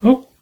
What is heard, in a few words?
Human Man Voice "Huh"